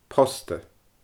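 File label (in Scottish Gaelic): "posta", Scottish Gaelic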